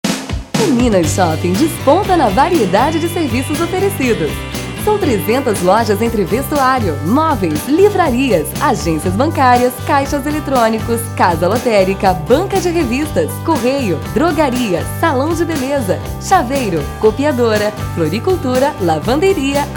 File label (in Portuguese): radio; minas shopping